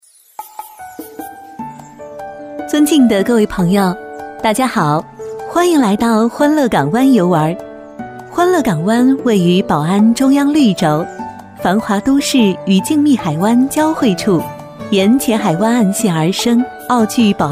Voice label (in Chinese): 女 广播亲切自然 导览词; 欢乐港湾; 女声广播提示